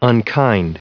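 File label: "unkind", US English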